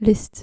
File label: "list", dutch